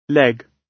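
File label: "leg", British English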